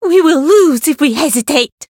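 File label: Voice encounter fear; niike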